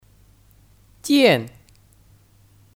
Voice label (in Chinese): "见", Jiàn; Kata kerja; Bertemu